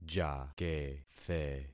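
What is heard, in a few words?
"jakethe", concatenated syllables; Affixing experiment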